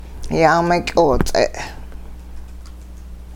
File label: animals sinew, hupa